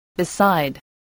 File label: "beside", English